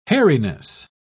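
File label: "hairiness", British English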